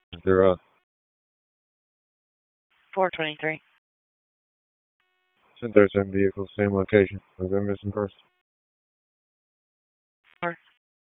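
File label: Police Southeast District Dispatch; Raleigh; wake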